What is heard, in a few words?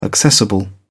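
"accessible", British English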